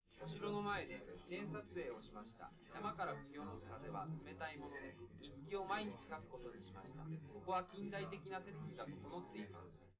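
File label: male, live recording; SiSEC11; underdetermined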